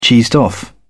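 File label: "cheesed off", British English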